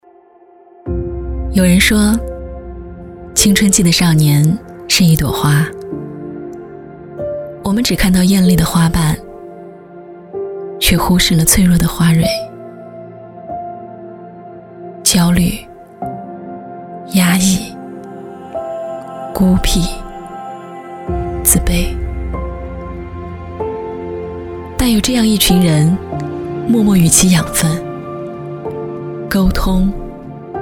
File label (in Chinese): 旁白青春期